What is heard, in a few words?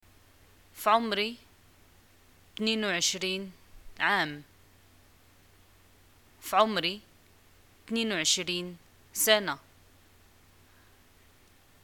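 im 22 years old, Moroccan arabic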